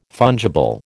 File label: "fungible", American